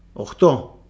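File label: "oxto", male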